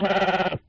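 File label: sheep, voices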